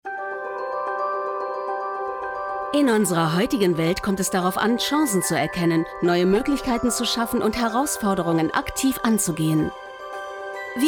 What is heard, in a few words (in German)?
Funkwerbung